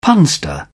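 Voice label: "punster", British English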